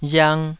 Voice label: "riang2", taiwanese

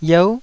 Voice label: "yeu9", Taiwanese

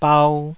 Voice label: "bau2", taiwanese syllables; 台語音節發音檔案

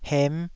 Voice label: "hem5", taiwanese

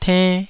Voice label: "tenn2", Taiwanese

